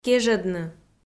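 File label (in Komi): "кежӧдны", Komi, female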